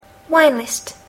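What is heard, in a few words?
"wine list", English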